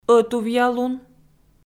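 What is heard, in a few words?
"ӧтувъялун", Komi, female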